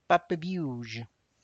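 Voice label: tlacochahuaya, Zapotec; tiny potatoes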